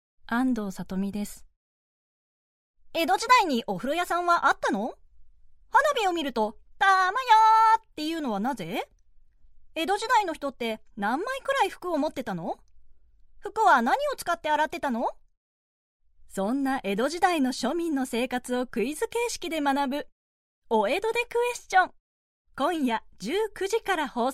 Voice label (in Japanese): ボイスB：クイズバラエティーの番宣風